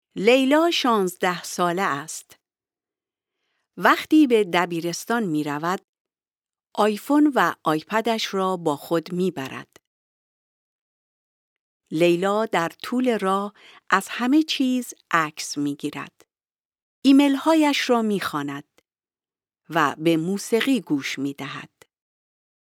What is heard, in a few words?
text farsi